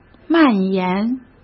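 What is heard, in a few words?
"蔓延", Mandarin Chinese; chinese flashcards; hsk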